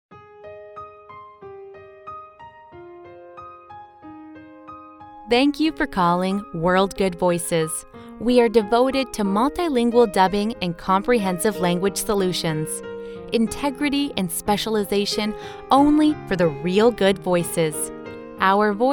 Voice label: 外籍女

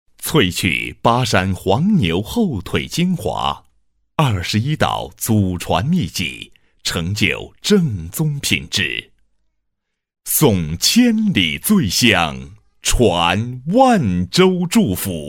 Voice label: 男 抒情广告, 国语男声配音; 万州冉师傅牛肉干

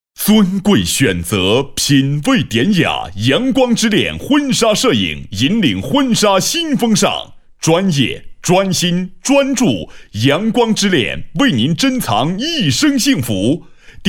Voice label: 广告-婚纱摄影, 男; 新闻广告专题颁奖; 阳光之恋婚纱摄影

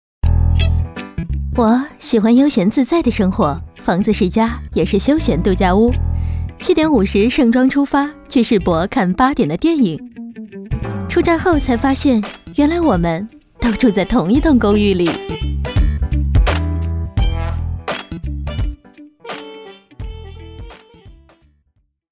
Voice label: 女 广告 时尚公寓; 国语女声配音

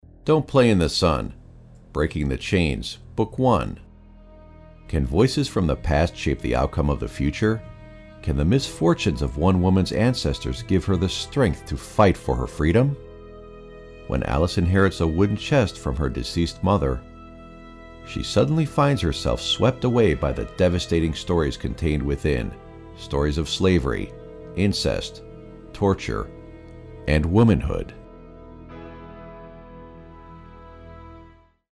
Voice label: 外籍英男 电子书 深沉力度

